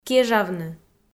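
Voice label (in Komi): "кежавны", Komi, female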